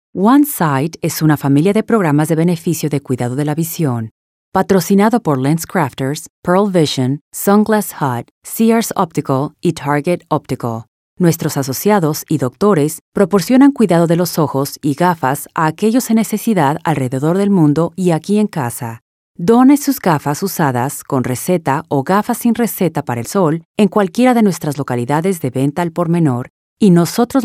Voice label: Spanish IVR